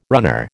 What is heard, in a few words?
"runner", American